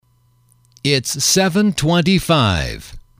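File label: "it's seven twenty five", English, male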